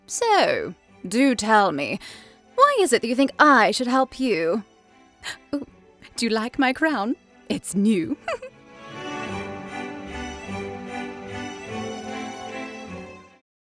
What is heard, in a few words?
english british animation